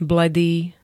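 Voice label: "bledý", Slovak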